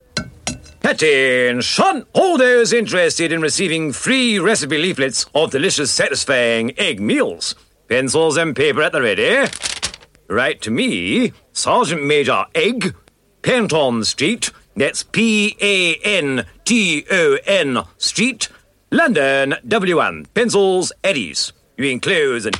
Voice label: Radio Advert, Egg Recipies; Radio Hallam; Crack A Meal

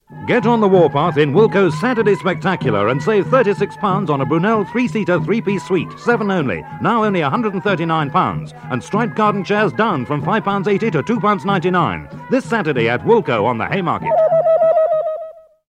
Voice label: Radio Advert; Woolco Saturday Spectacular; Radio Hallam

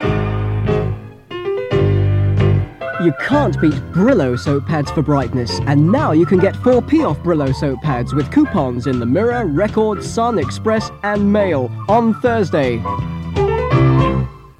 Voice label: Radio Advert; Radio Hallam; Brillo

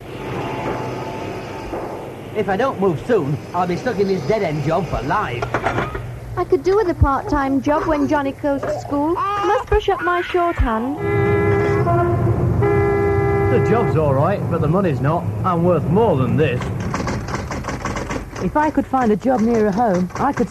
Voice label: Radio Hallam Advert - Jobs; Star

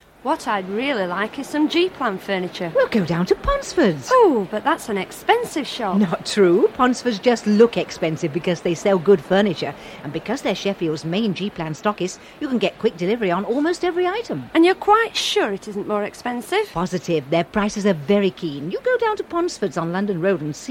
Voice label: Radio Hallam Advert; Ponsfords G Plan

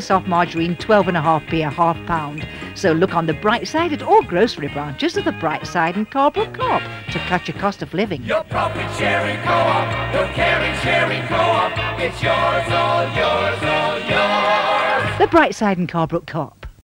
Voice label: Radio Advert, Flour; Radio Hallam; B&C Cop; Berol

